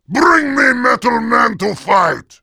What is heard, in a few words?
Heavy say ready